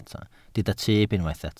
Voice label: "tyb", Welsh